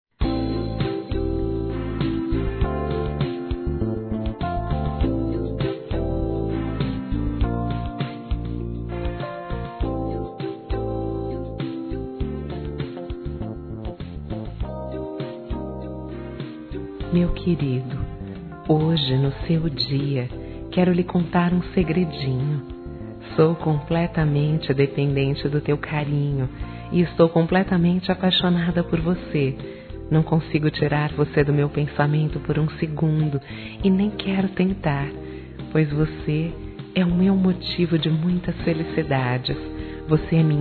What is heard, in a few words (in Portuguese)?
AMANTE F; Telemensagens 2017; Aniversários; Aniversário atrasado e antecipado